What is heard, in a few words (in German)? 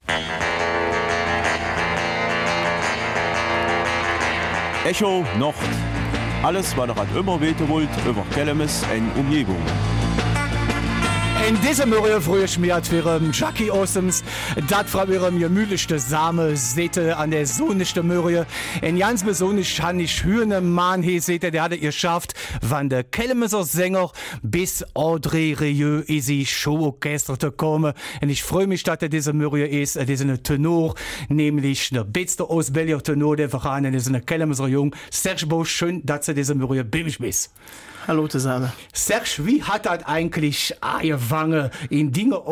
Kelmiser Mundart; Leben mit der Musik